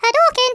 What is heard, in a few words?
"fireball", speech; Worms Armageddon